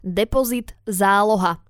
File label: "depozit zaloha", slovencina